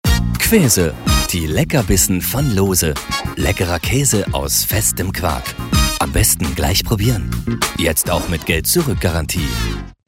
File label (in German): Freundlich